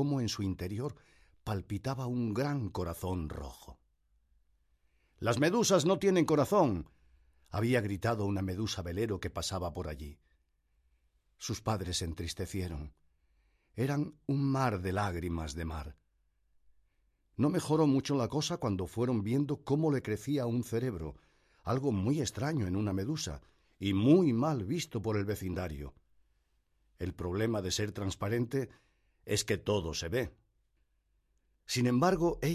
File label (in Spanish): Capitulo I AURELIA Solo narracion